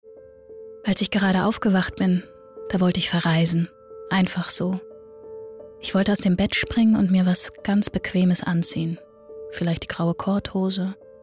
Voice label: Narrative, German; Gedanke "Aufgewacht"

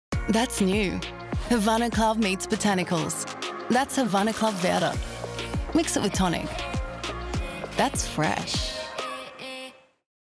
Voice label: TV Spot; Havana Club Verde; Havana Club meets Botanicals; LOFT VOICES

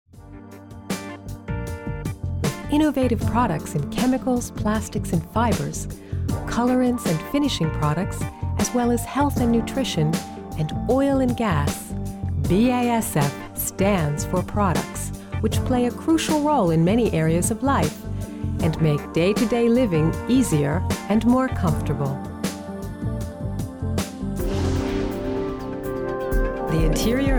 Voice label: industrial medley

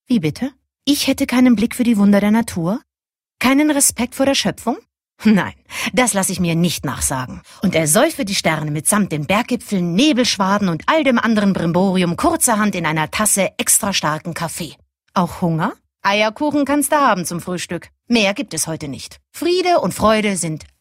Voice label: comedy

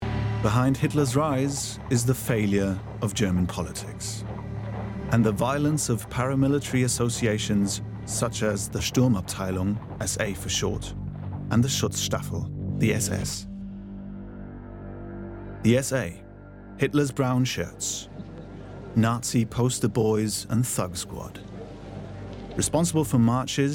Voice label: ENG Documentary; ZDF

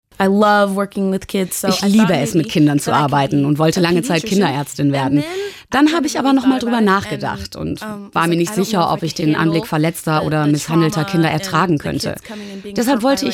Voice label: voiceover

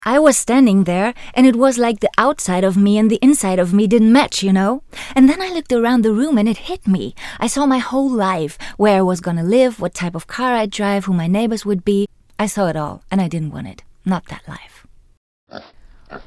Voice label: English

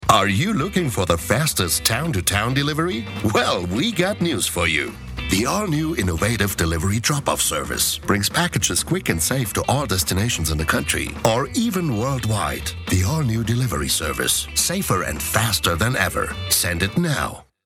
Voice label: Delivery US

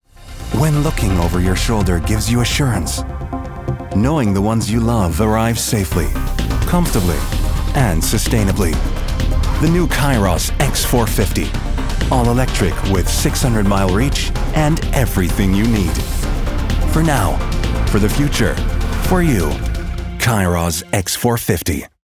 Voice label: US COMMERCIAL; LOFT VOICES

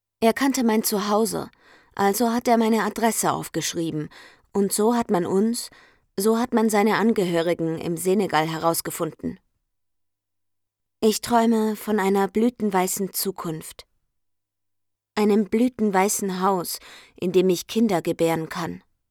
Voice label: voiceover

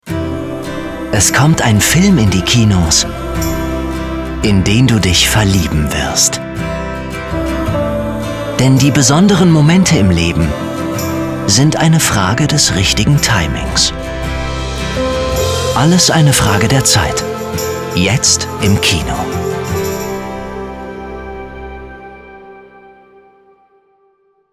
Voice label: Trailer Romance, German; voice

